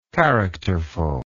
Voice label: "characterfully", English